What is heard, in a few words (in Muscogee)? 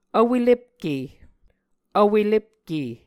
"vwelepke", Muscogee